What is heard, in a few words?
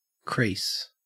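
"crease", Australian English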